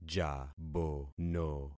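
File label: "jabono", concatenated syllables; Affixing experiment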